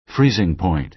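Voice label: "freezing point", American English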